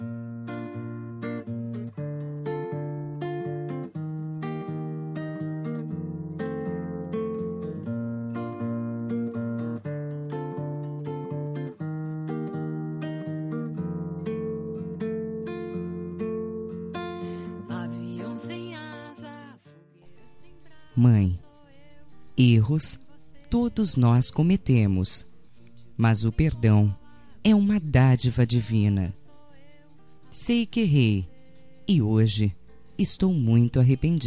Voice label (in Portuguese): dia das maes perdao para mae voz feminina